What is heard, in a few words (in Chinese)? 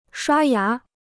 "刷牙", Chinese; 刷牙 (shuā yá); to brush one's teeth